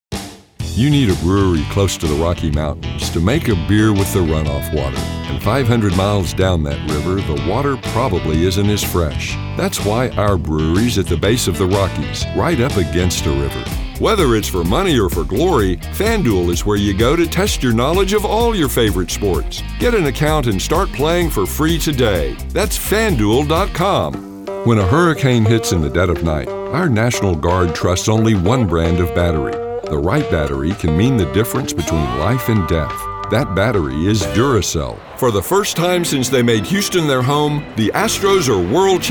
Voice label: Commercial